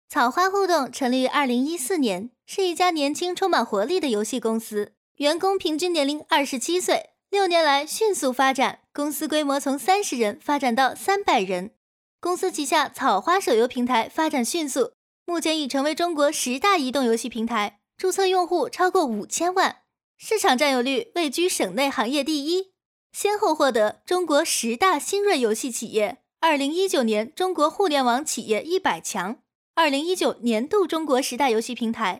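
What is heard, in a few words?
Mandarin Chinese, female, voice over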